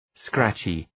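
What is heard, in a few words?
"scratchy" English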